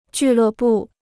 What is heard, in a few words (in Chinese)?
"俱乐部", Chinese